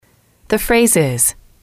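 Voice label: "phrases", female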